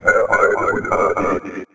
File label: Speech Announce; in-game sound effects; Papers Please